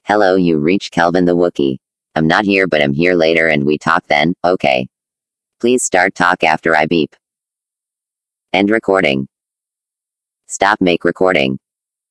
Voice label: voicemail